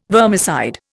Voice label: "vermicide", Indian